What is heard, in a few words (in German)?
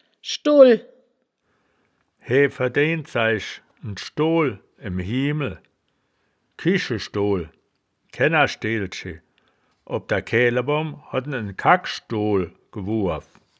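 "schdool", Wittlicher Wörterbuch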